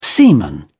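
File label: "semen", English, British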